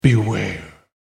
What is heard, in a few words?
"Beware" Old Male Warning Voice; Talking Sound Effects; horror sound effect